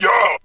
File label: "yo", voice